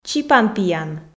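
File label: "чипанпиян", Komi, female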